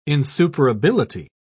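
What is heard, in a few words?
"insuperability", British English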